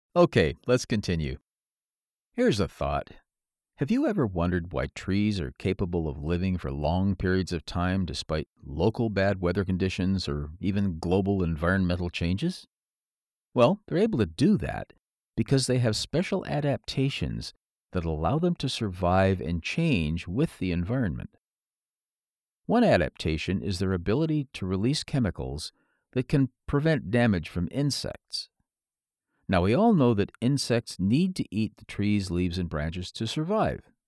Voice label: TOEFL Speaking